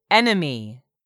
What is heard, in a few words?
"enemy", English; 3000 words